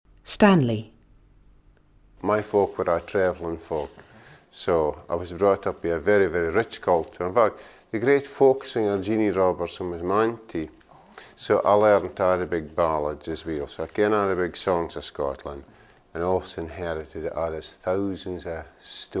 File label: Scots Speak, Dundee